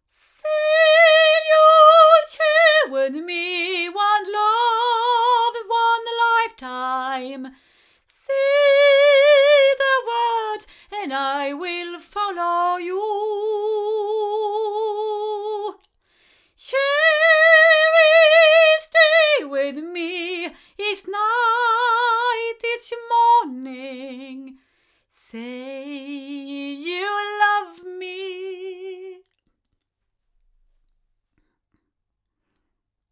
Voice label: Sontronics Orpheus 8 female, microphones recordings